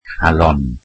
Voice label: welsh heart

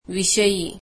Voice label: marathi about

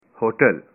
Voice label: "hotel", punjabi

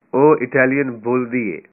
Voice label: she speaks Italian, punjabi

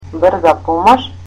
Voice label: "ambulance" Macedonian

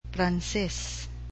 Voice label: "French", cebuano

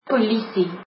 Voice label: police, afrikaans

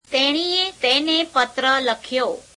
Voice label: gujarati she wrote him a letter

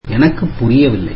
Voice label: tamil I dont understand

